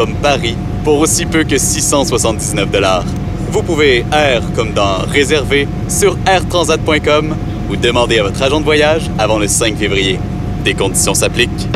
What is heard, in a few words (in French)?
pub annonceur fr qc naturel amical avec sourire explicatif promo; air transat; medium adulte